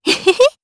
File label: Happy, jp; kingsraid